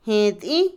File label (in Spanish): hilar, Otomí, mujer; Ropa; INALI